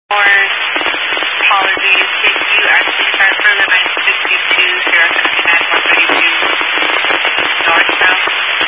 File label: Highway Patrol, scanner; DPS; Dist 06 Casa Grande